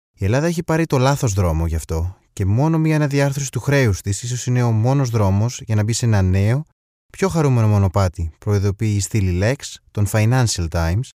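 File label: Greek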